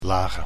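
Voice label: "lagen", Dutch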